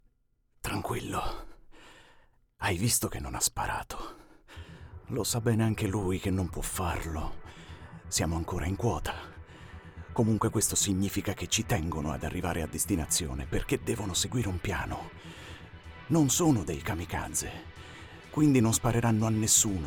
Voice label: italian impersonations, voice actor